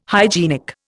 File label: "hygienic", Indian